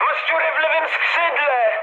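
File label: Polish left wing mood high; speech; War Thunder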